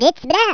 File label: amazing, Norwegian; Speech; 11khz